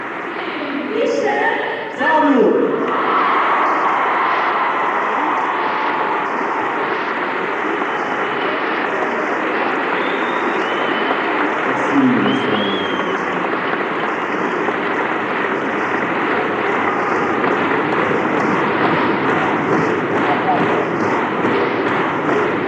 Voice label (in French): Sketch Le telephone; Forest National en folie